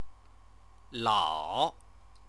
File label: "lao", Chinese